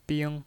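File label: "bing", Mandarin Chinese, first tone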